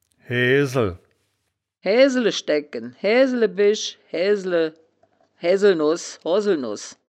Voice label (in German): "haesel", wittlich